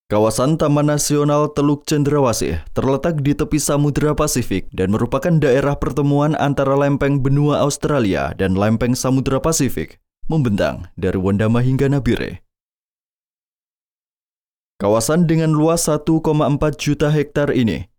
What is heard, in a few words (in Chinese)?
印尼语男, 年轻磁性